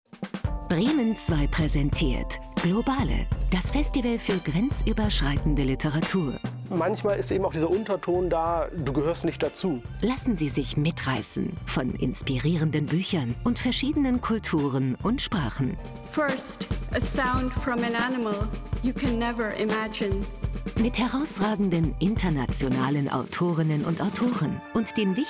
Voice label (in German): Trailer; Bremen Zwei; Globale 2025